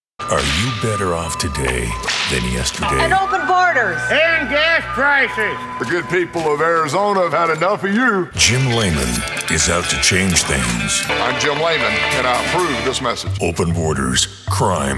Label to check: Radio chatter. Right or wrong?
Wrong